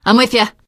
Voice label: im with you, teengirl; source engine; left 4 dead 2; survivor